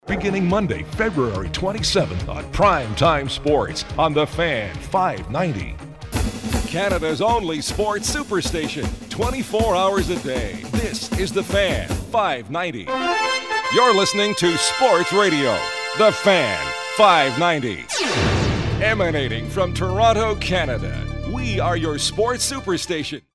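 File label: Canadian English, male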